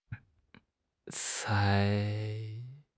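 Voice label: "cai1", Mandarin Chinese; HSK4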